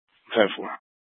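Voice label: Scottsdale Police South Dispatch; Scttsdle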